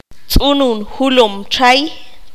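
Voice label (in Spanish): "martín pescador", qanjobal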